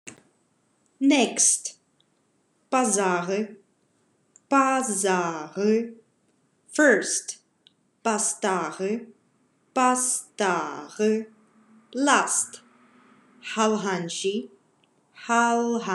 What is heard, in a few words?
"Next, First, Last", Khakas